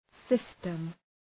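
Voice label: "system", English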